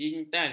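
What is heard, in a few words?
"jintan", Malay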